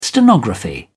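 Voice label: "stenography", British English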